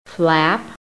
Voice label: "FLAP", american; PHONETIC

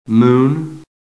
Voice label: "MOON", american; PHONETIC

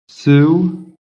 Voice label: "sue", american

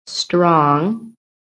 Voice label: "strong", American

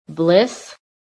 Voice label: "BLISS", PHONETIC

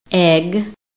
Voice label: "EGG", american; PHONETIC

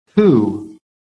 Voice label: "who", American

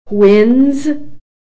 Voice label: "old", american

